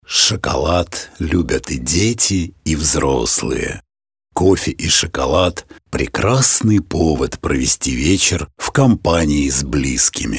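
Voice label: Russian male example Sweet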